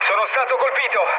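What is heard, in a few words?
Italian aircraft damaged mood med, speech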